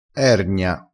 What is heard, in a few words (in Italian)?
"ergna", vocabolario dialettale